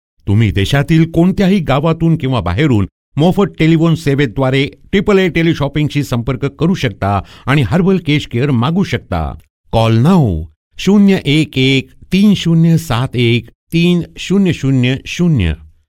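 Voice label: Marathi, male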